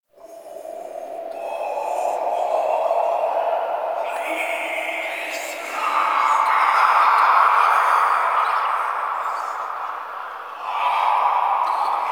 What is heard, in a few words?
Whispers; Inf Hub Lab; Behold Us; Warframe; Ambience; Entrati